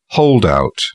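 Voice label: "holdout", British English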